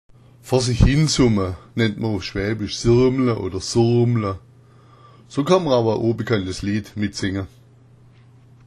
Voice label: "surmla", schwaebisch; surmla oder sirmla; Vor sich hinsummen

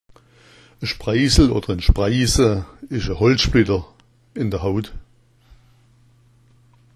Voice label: spreisel, schwaebisch; Ein Spreißel ist ein Holzsplitter, der in die Haut gestoßen ist.; Möglingen

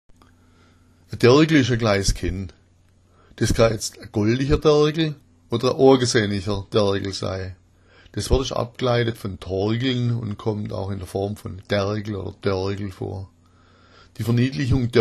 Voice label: dergele, schwaebisch

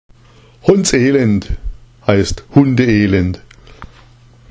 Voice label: hondselend, schwaebisch; hondselend heißt hundeelend

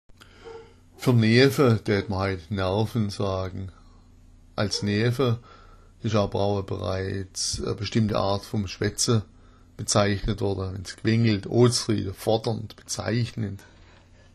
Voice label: "neafa", schwaebisch

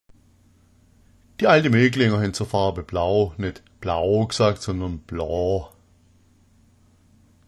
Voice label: bloo, schwaebisch

